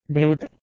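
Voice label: "bheudh", Proto-Indo-European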